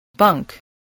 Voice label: "bunk", spelling